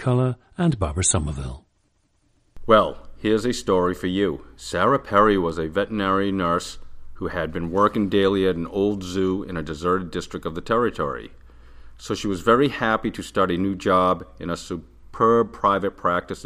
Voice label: massachusetts, dialects